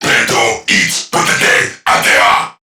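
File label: Player Spotted Crewmen, English; Warframe; CorpusCrewmen; CrewmanA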